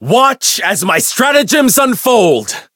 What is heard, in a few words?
Voice variable chant; shion